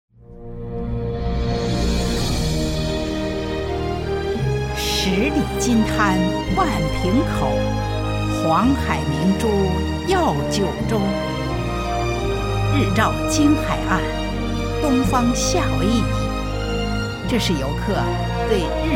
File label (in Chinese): 宣传片, 女声, 中年（36-54）, 普通话; 万平口